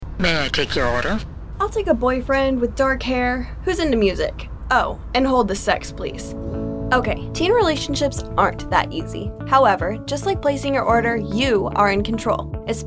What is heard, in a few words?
Sex Ed Radio Spot